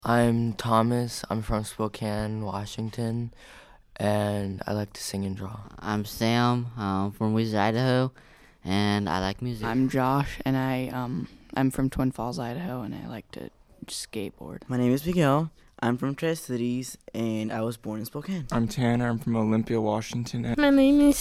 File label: Vox Pop; Blind Youth Audio Project 2013